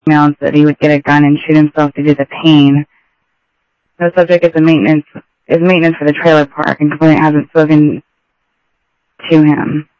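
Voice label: Sheriff East County, scanner audio trunk; ThompsonPeakScot; MCSO - EAST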